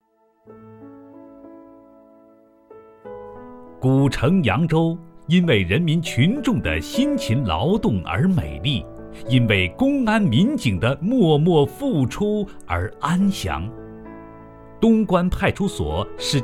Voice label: 男 公安系统汇报

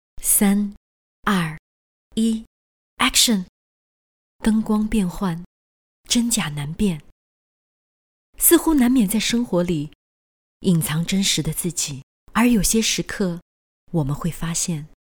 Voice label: 女, 旁白, 叙述

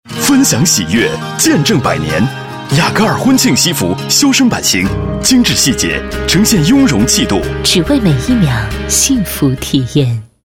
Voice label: 女4号 广告 婚庆西服 男女合配, 国语女声配音

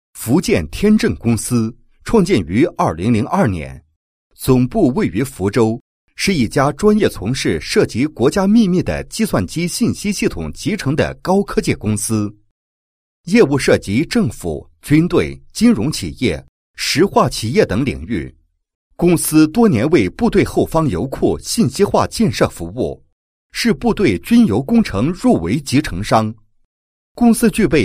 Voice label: 男 解说专题 解说; 油库信息化平台解说

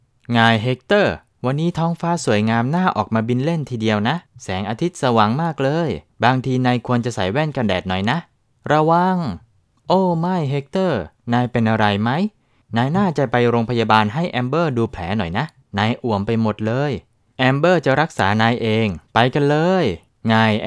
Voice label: 泰语男 儿童节目; 当心直升机

